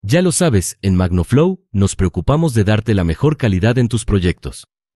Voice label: Turco